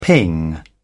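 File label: "ping", British English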